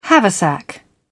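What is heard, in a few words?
"haversack", British English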